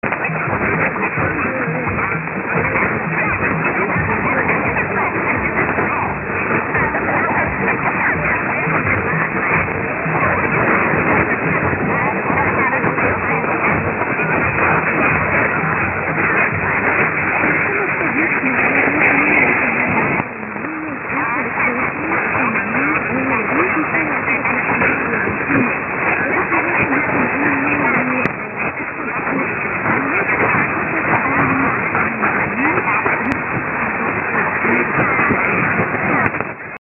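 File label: Radio w middle eastern music woman talk; 675 QMC Radio Qatar (p)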